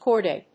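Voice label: "chordae", English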